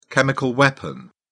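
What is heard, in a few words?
"chemical weapon", British English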